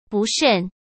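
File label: "不慎", Mandarin Chinese; hsk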